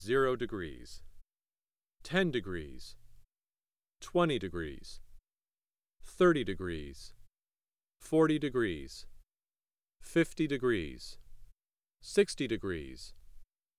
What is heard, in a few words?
Blumlein, spoken